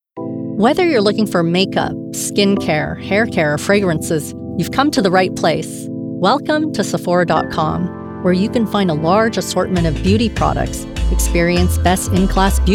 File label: EXPLAINER; SEPHORA